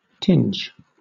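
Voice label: "tinge", British English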